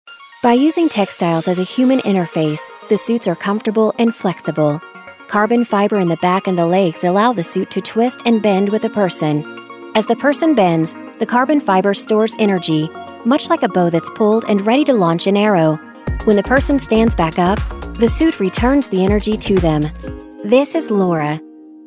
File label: Female Voiceover, corporate narration